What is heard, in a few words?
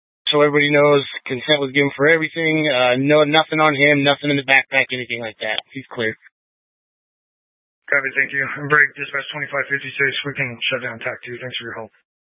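Incident Tac 2, Scanner audio recording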